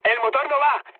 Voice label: damaged engine, mood high, speech; War Thunder